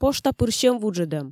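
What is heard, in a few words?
"пошта пыр сьӧм вуджӧдӧм", Komi, female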